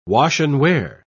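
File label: "wash-and-wear", British English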